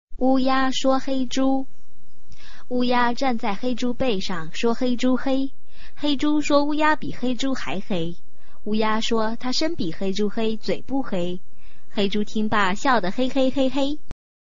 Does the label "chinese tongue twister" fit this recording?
Yes